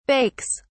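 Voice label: "bakes", British English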